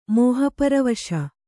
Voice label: "ಮೋಹಪರವಶ", kannada